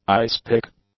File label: "ice-pick", English; nouns